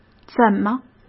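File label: "怎么", Mandarin Chinese; hsk; chinese-flashcards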